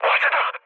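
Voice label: Korean on fire mood low; War Thunder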